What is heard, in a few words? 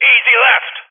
Vocal Easy Left; Rally Champ